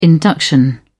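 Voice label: "induction", British English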